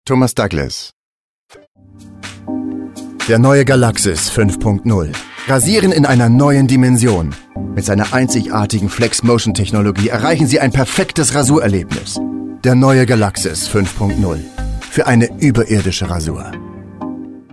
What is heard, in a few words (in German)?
Werbung Galaxis 5.0 Rasierer